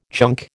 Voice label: "chunk", American